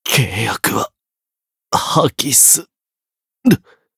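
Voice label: Voice retire, Japanese; zeviro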